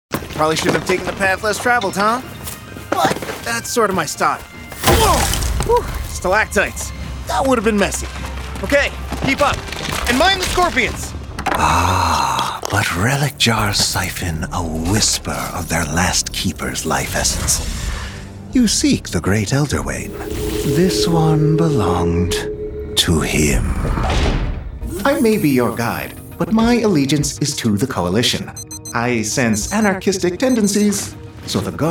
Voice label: Video Game, en-AU